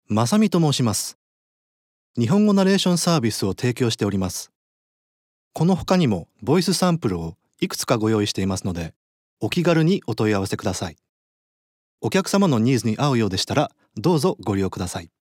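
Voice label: Japanese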